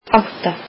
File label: icelandic eight